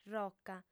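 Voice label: "roca", female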